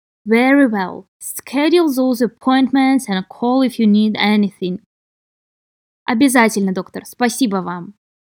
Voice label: Medical Certified Oral Russian Practice